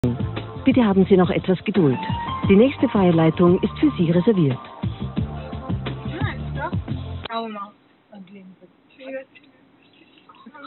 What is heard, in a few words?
Call recording